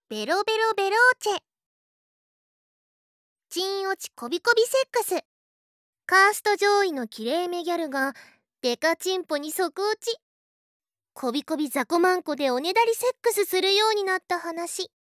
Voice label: タイトルコール

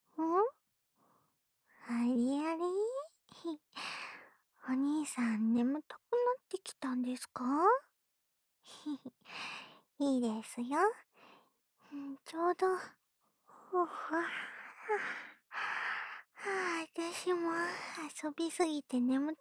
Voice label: 安眠用添い寝（右耳）, ASMR

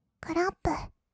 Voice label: クラップ, kawaii voice; 音ゲー

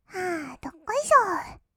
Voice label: "あーどっこいしょー", kawaii voice; 音ゲー

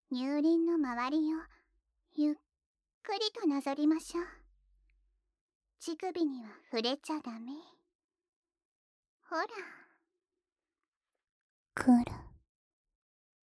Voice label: クルクル ゆっくり, 左耳, ロリ, ループ音声; 乳首オナ指示でカリカリタイム

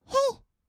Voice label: "へい", kawaii voice; 音ゲー

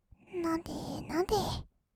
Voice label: なでなで, kawaii voice; ASMR; 色々なワンショット

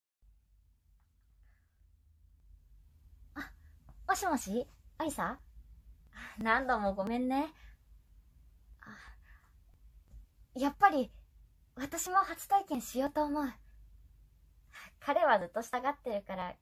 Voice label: 友達との電話; 恋人と初体験で処女喪失すると告白

